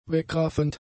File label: "wegraffend", German; adjectives